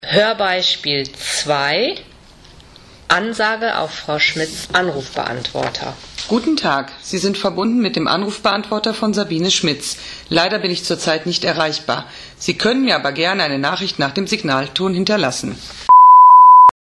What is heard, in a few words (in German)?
ansage